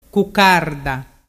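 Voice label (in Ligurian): "cuccarda", Ligurian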